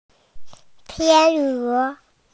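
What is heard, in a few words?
"swan", Mandarin, hearing-impaired; tian1e2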